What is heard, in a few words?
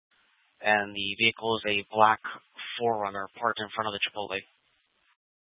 Mesa PD Dispatch